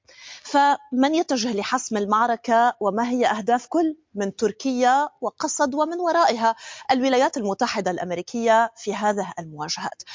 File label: news report, arabic dialect